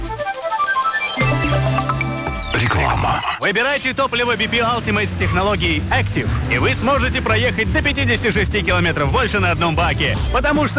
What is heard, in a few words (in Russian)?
Russkoe Radio 105.7 FM Reklamnyj blok; Moskva